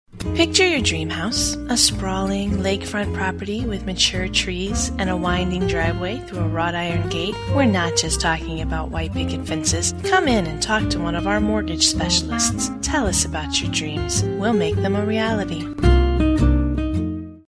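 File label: gentle, radio commercial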